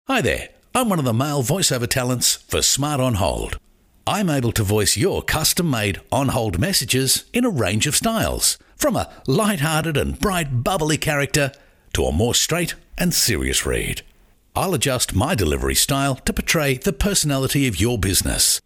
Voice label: MALE